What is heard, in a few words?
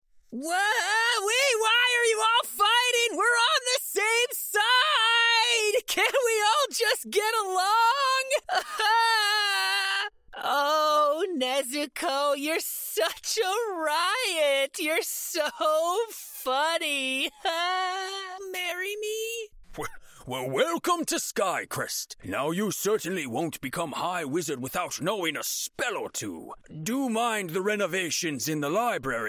Character Reel